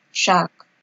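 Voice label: "shak", Nigerian Pidgin, female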